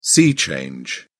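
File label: "sea change", English, British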